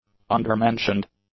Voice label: "undermentioned", English; adjectives